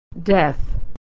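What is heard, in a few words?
"death", American English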